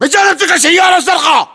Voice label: landmark near carblue, localized english battlechatter